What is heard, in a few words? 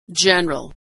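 "general", American English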